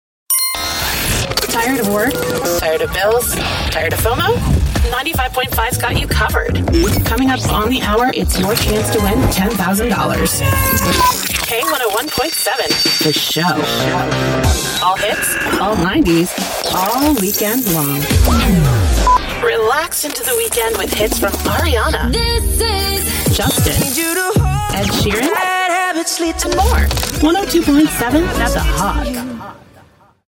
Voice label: HOT AC RADIO IMAGING